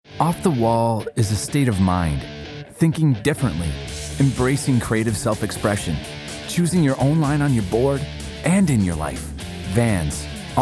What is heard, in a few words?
Commercial Demo